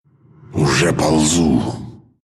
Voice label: move, ru; dota2

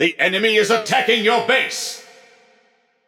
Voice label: announcer match under attack base enemy attacking; BombKing

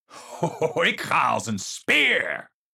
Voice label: (Dutch) (laughs) I'm on fire!; Sigma; overwatch

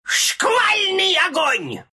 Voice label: flak cannon, ru; Gyro

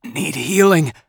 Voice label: Healing; Assassin; Shinobi; Realm Royale

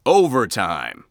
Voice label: Announcer Match Overtime; Evan

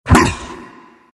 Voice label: earth spirit pain

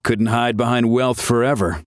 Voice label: Taunt Directed; ShaLin; Lian

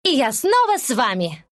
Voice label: respawn, ru

